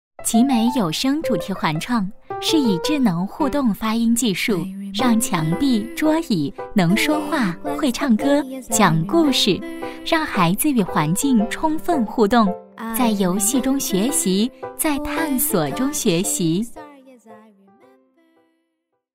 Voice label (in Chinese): 专题 甜美; 吉美有声主题环创